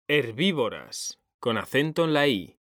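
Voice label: "herbívoras", Spanish, male; mekanta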